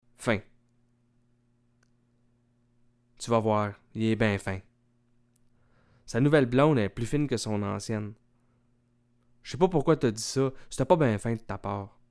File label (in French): fin, québécois